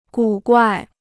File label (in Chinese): "古怪", Chinese